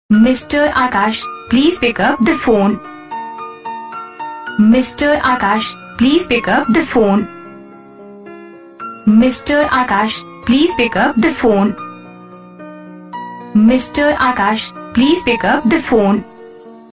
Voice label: akash please pickup the phone name ringtone, hindi